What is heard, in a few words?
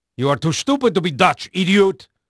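Dutch male, voice; Pirates Of The Caribbean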